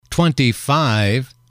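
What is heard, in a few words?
twenty five, English, male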